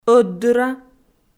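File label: "ӧтдыра", Komi, female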